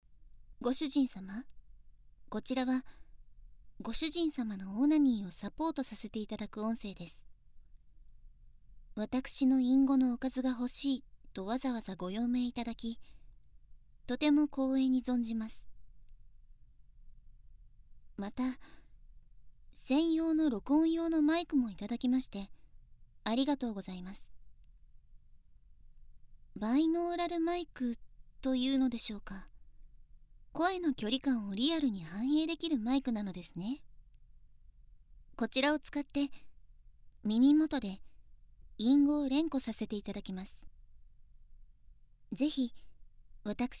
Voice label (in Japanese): バイノーラル; オナニーサポート音声; 右耳ver; ＳＥ無し